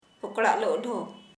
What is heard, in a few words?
"then", Kharia